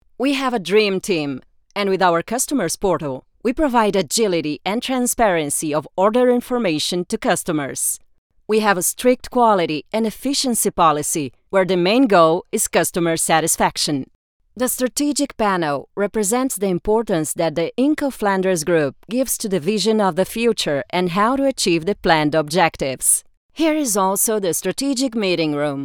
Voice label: Corporate English